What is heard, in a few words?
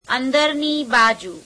"inside", gujarati; અંદરની બાજુ; amedereneī beājeu